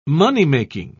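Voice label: "moneymaking", English, British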